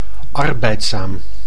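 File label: "arbeidzaam", Dutch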